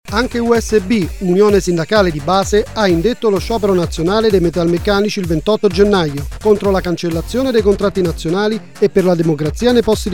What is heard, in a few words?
spot Radio; Radio Popolare; lombardia